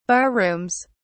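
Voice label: "barrooms", British English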